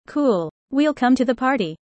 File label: fast; four seasons